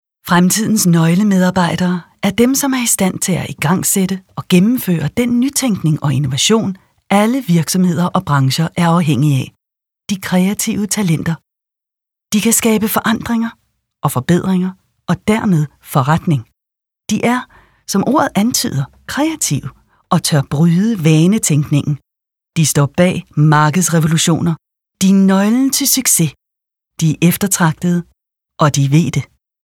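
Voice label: Danish, Audiobook, female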